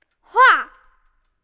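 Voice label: "huang4", Mandarin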